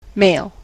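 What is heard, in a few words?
"mail", US English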